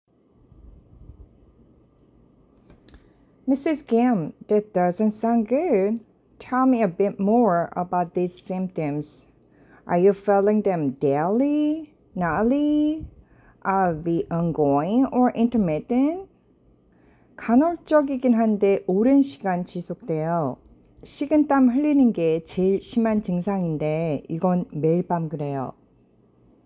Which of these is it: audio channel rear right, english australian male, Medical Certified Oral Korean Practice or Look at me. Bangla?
Medical Certified Oral Korean Practice